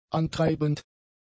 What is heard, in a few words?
"antreibend", German; adjectives